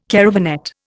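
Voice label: "caravanette", Indian English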